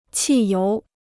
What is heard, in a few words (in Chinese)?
"汽油", Chinese